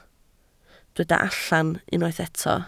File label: "allan", Welsh